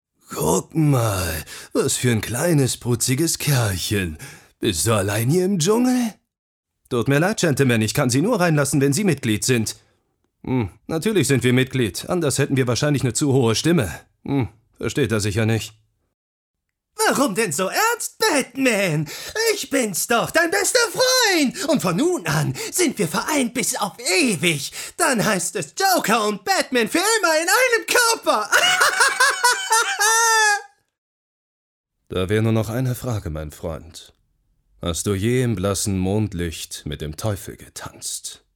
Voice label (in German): Trailer Stimmenvielfalt